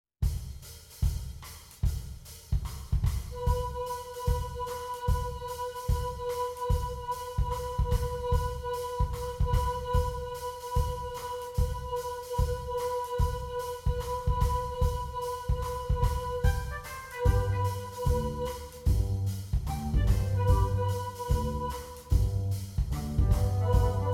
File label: Wondrous Celebration Patter